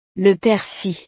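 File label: "le persil", French; verduras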